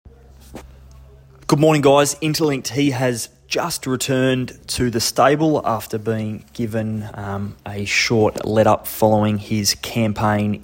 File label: voice note; Interlinked NZ